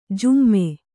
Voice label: "ಜುಮ್ಮೆ", Kannada

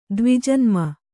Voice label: "ದ್ವಿಜನ್ಮ", kannada

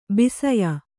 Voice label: "ಬಸಿಯ", Kannada

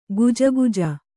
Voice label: "ಗೊಜಗೊಜ", Kannada

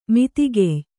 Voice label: "ಮಿತಿಗೆಯ್", Kannada

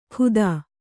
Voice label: "ಖುದಾ", kannada

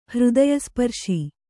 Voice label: "ಹೃದಯಸ್ಪರ್ಶಿ", Kannada

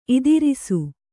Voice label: "ಇದಿರೀಸು", kannada

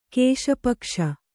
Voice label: "ಕೇಶಪಕ್ಷ", kannada

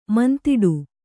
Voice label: "ಮುಂದಿಡು", kannada

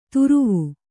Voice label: "ತುರುವು", kannada

